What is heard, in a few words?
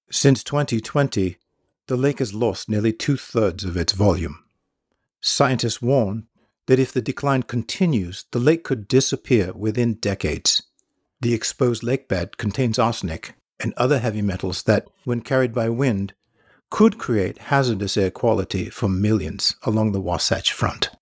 Sample British